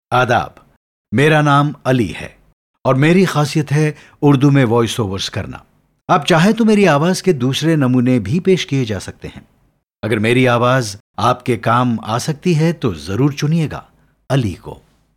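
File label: Urdu, voice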